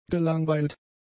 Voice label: "gelangweilt", German; adjectives